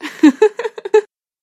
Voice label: monster succubus

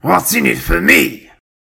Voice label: orc trades dealer

